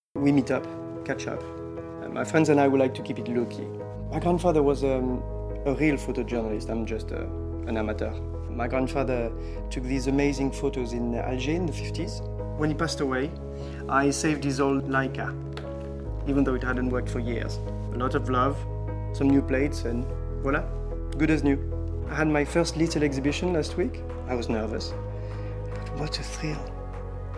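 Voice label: ENG commercial strong French accent; Chivas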